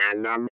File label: robot voice